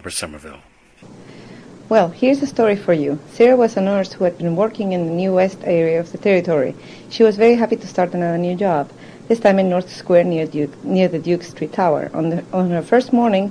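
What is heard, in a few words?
chile, International Dialects of English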